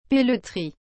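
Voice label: "pelleterie", French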